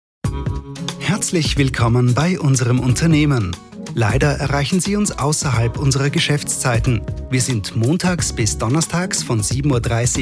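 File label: German Austrian, male, ivr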